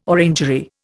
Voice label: "orangery", Indian